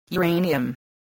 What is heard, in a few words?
"uranium", English